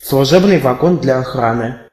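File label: caboose, Russian; vehicles; locomotive; cartoon; stamps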